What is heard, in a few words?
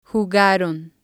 "jugaron", spanish